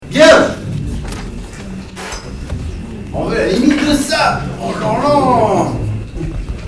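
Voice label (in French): "oh là là", French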